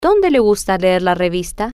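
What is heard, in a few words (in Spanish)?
Donde Le Gusta Leer Revista, spanish, female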